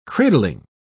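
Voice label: "cradling", British English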